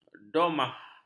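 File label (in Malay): "domah", Malay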